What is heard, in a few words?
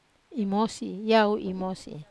"mosi", Wamesa